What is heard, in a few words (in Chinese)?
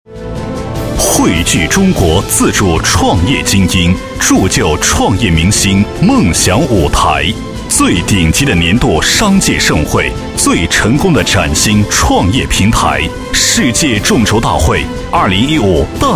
男 宣传广告; 世界众筹大会 中国自主创业大会